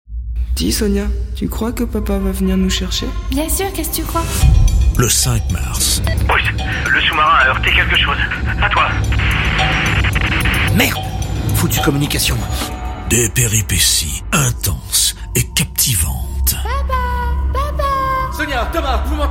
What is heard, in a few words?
Digital audio fiction; ESRA region 3